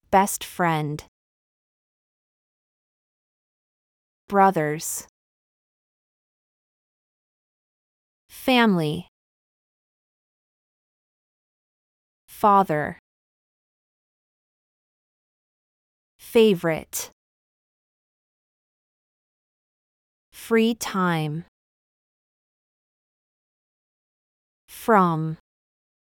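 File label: American; introductions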